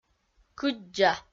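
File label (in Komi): "кыддза", Komi, female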